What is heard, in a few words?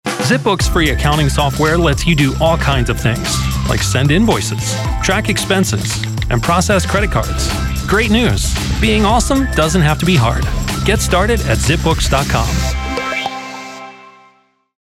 commercial, voice over for commercials; zip books